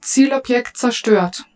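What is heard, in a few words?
German, target destroyed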